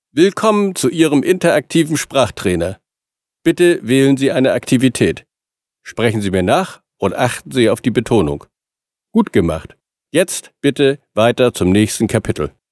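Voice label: German male corporate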